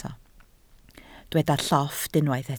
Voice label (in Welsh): "llofft", Welsh